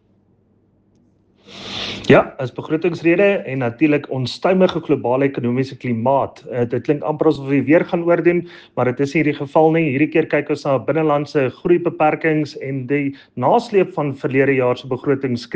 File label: english voice note; Budget speech